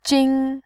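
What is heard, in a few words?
"jin", Mandarin, first tone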